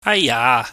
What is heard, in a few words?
"aiya", Cantonese